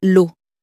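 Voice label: "eau", French, female; flashcards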